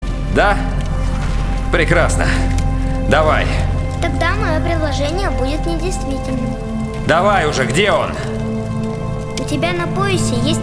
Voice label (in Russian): golos audiofilm